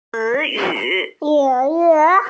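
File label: "ci2 yu3", Mandarin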